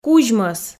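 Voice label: "кузьмӧс", Komi, female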